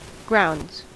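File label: "grounds" US English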